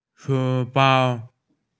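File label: "schoolbag", Mandarin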